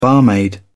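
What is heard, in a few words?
"barmaid", British English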